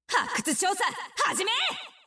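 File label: Japanese; 探奇（守望先锋2）; 终极技能; 発掘調査、始め！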